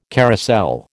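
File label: "carousel", American English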